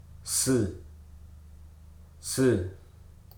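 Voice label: "iron", spoken word; hruso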